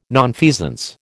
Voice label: "nonfeasance", American